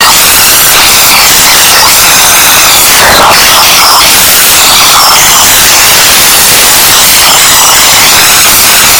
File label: curse scary